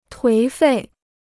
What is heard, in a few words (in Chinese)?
"颓废", Chinese